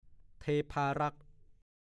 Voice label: "เทพารักษ์", ภาษาโคราช; ชื่อกิ่งอำเภอหนึ่งในจังหวัดนครราชสีมา เดิมชื่อสำนักตะคร้อ

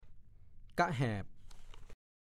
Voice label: "ก้ะแหบ", ภาษาโคราช; อาการแขม่วท้อง เรียกว่า ก้ะแหบท่อง

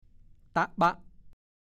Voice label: ตะบ๊ะ, ภาษาโคราช; ชาม กาละมัง ภาชนะใส่ข้าวใส่แกง

